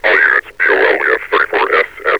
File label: all units bol 34 sat, metropolice vo; Half Life Alyx